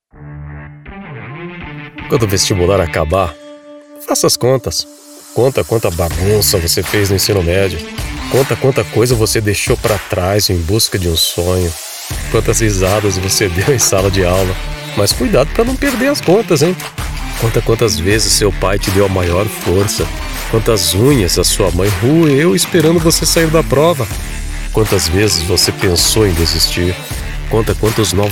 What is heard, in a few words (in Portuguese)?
coloquial; Positivo